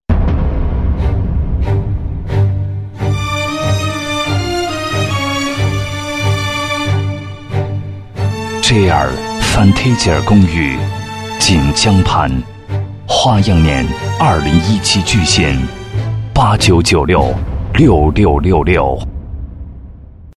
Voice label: 男 广告 品质广告; JR公寓

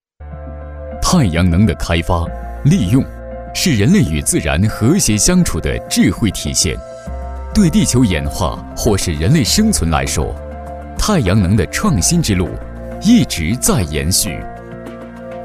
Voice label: 男, 专题, 带干音; 太阳能开发

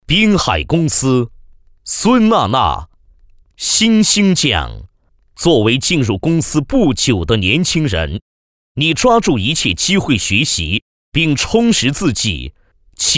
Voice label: 男 颁奖

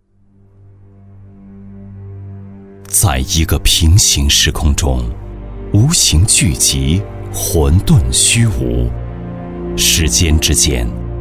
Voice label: 男, 专题, 宣传片; 九州天空城

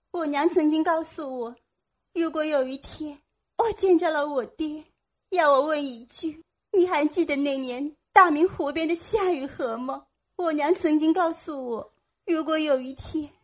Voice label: 女25-模仿, 女; 紫薇

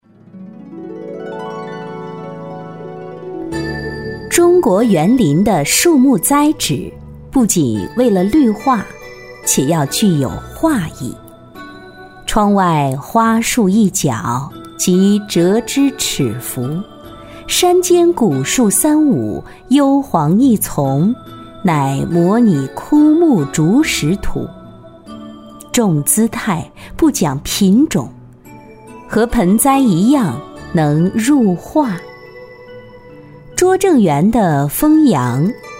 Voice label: 女 课件 有声书片段; 梓翁说园

